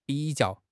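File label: "bi3 jiao4", Mandarin Chinese; HSK3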